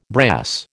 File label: "brass", American